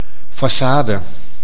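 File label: "facade", Dutch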